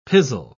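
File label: "pizzle", British English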